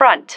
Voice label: "front", female